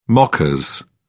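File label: "mockers", British English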